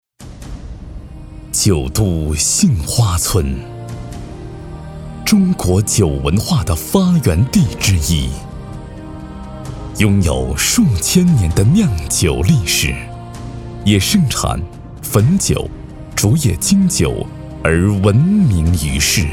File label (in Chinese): 男 广告; 汾酒